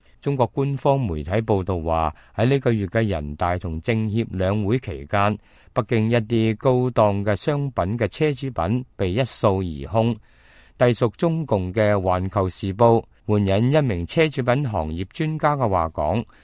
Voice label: cantonese, news